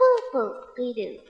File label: poo poo pee doo, gibbrish; Voice